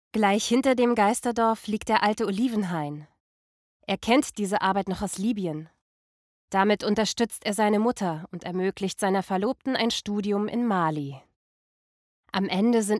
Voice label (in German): Kommentar Dokumentation